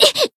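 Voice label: Voice damaged, Japanese; sophia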